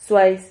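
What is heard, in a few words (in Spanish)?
"zuais", Spanish syllable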